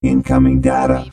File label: Robot voice - incoming data; lynne publishing